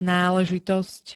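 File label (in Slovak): "náležitosť", slovenské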